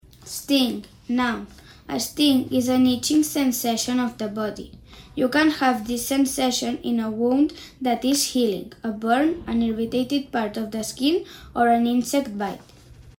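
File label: "sting", English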